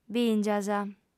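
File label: "bìngias", female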